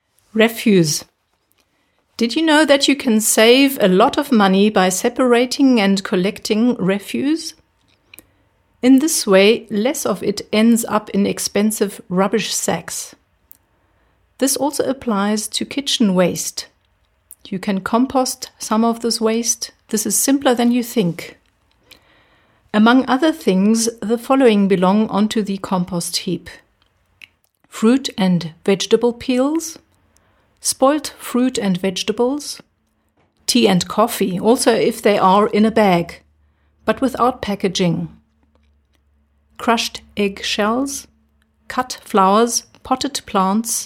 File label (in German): engl